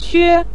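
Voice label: "que", Mandarin Chinese, neutral tone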